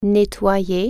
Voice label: "clean", French